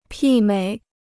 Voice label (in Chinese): "媲美", Chinese